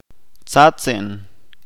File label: "party", Chalchiteko